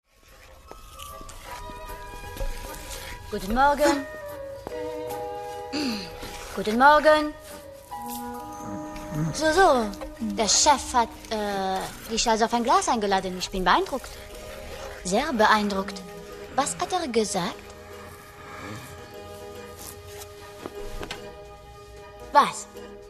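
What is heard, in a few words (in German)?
Akzente Dialekte, Französisch, frauen; Ratatouille; Colette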